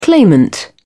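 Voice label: "claimant", British English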